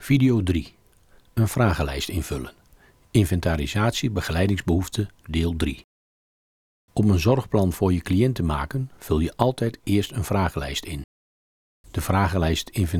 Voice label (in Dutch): Voice over instructiefilm; voice-over fragment